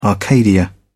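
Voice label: "arcadia", British English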